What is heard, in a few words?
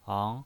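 "ang", Mandarin Chinese, second tone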